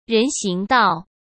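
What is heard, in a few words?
"人行道", Mandarin Chinese; chinese-flashcards; hsk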